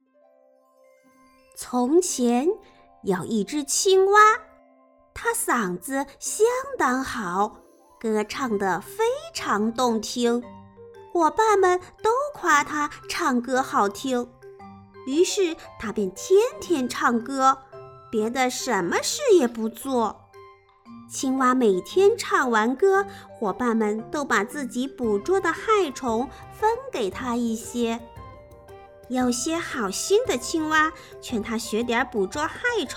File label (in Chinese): 儿童故事; 小青蛙爱唱歌